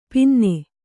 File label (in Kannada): "ಪನ್ನ", Kannada